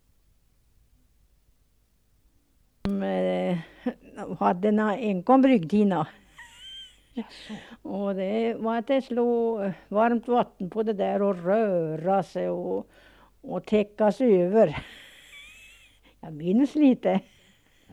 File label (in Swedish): Skogs-Tibble, dialekter; Folkminnen; Svenska sagor